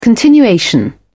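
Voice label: "continuation", British English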